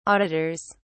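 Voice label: "auditors", British English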